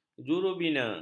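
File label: "jurubina", Malay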